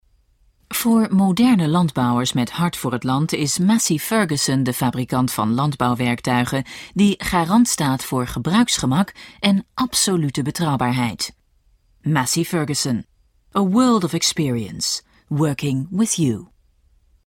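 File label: Dutch Corporate; GoLocalise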